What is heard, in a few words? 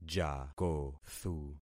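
"jakothu", concatenated syllables; Affixing experiment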